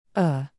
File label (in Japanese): イギリス弱母音ə